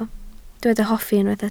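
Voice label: "hoffi" Welsh, spoken word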